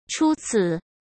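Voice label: "初次", Mandarin Chinese; hsk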